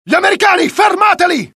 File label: alert, dialogue IT; mohaa; attack